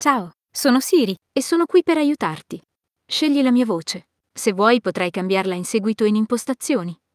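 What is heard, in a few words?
Italian, Italy; iOS 26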